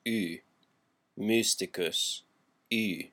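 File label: "mysticus", Latin classical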